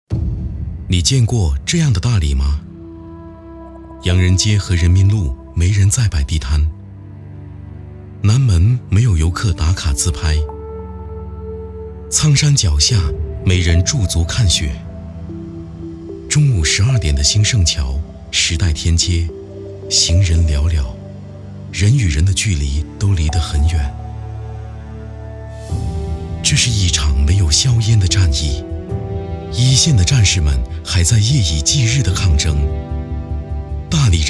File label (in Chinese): 宣传片; 在大理等你类似新冠武汉宣传片